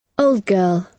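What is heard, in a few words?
"old girl", British English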